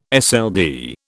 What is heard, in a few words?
"SLD", British